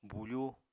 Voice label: "bufú", Apatani